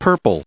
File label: "purple", en-us